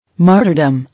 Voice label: "Martyrdom", English